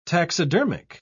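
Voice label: "taxidermic", British English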